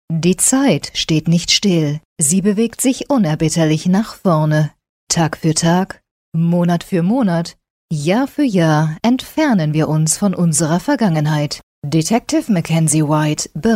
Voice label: german female, german corporate